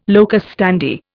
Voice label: "locus standi", Indian